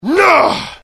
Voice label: anger, dialogue IT; mohaa; attack